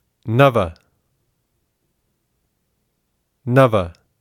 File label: "nava", sanskrit; Digit